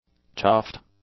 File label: "chuffed", English; adjectives